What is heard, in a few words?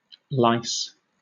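"lice", British English; pronunciations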